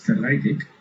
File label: why, basque